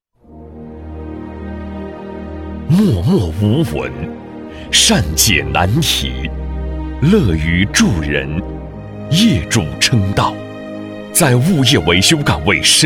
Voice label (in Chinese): 男, 人物专题, 抒情; 年轻激情; 低沉走心